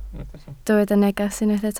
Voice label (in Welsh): "neges" Welsh